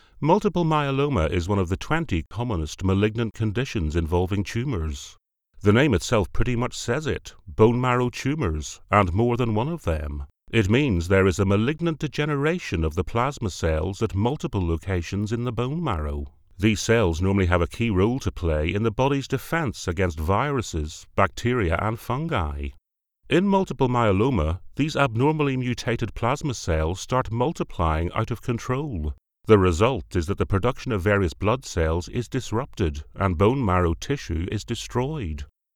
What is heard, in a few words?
medical Learning